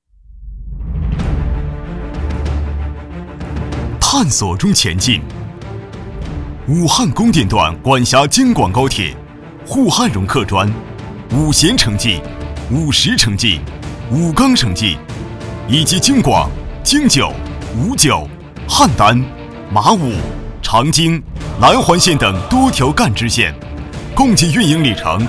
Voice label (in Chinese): 专题 项目解说 铁路, 国语男声配音